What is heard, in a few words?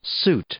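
"suit", English, male; noun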